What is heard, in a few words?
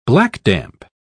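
"blackdamp", British English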